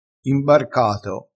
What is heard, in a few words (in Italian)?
"imbarcato", crespinese